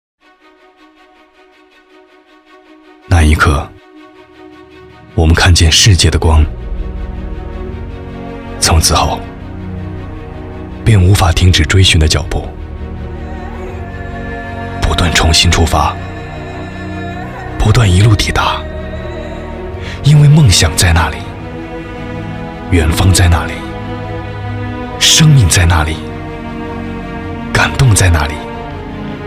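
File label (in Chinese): 男【品质专题】（品质感）; 高端广告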